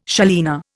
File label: "salina", Indian